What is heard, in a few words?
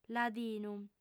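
"ladinu", female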